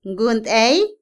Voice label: envidia, celos, Otomí, mujer; Emociones; INALI

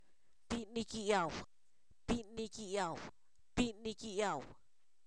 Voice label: hombre, Zapoteco, san miguel albarradas; humano; INALI

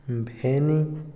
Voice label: "pensar", Otomí, hombre; INALI

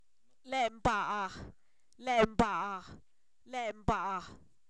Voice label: Zapoteco, san miguel albarradas; sepultura; objetos inanimados

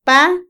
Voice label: caliente, Otomí, mujer; Percepción; INALI

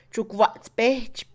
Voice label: Mixe, Mujer; montar; Movimiento; INALI

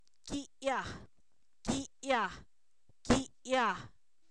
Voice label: Zapoteco, san miguel albarradas; pie; cuerpo; INALI

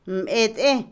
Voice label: trenzar, Otomí, mujer; Ropa; INALI

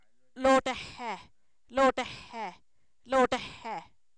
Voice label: fogón, Zapoteco, san miguel albarradas; objetos inanimados

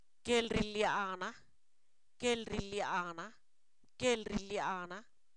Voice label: hambruna, Zapoteco, san miguel albarradas; sustantivos

